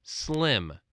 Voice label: "slim", voice, spelling

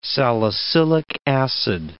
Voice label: "salicylic acid", English

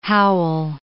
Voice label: "howl", English

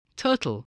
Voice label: "turtle", voice

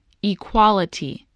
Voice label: "equality", voice, english

